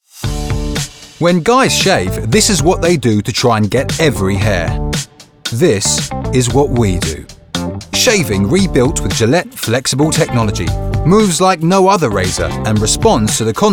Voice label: Commercial